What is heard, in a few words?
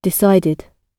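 "decided", British English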